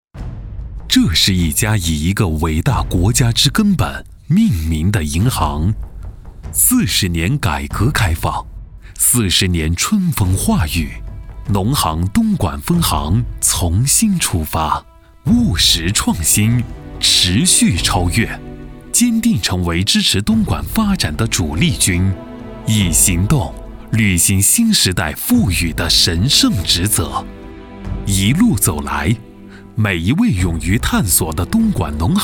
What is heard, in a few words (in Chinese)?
广告; 农业银行东莞分行